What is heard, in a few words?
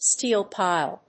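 "steel pile", English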